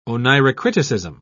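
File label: "oneirocriticism", English, British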